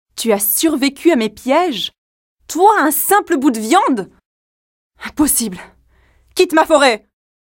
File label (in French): voix dure; Jeu vidéo